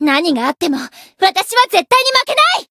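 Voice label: Voice variable chant, Japanese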